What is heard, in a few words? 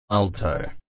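"alto", AUS, male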